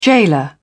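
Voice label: "gaoler", English, British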